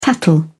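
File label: "tattle", British English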